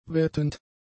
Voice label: "wettend", German; adjectives